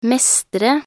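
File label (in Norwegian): "mestre", Norwegian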